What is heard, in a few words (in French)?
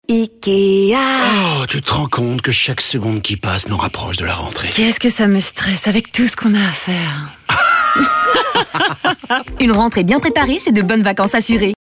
radio version courte; IKEA